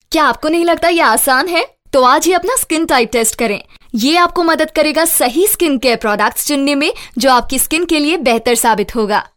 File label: Female